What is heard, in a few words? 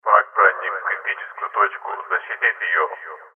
announce; Dystopia; exodus